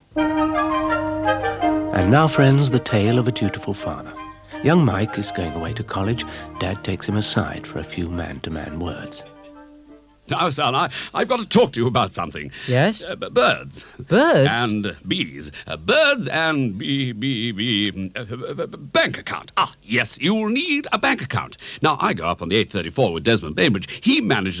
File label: Radio Advert, Student Accounts; Radio Hallam; Barclays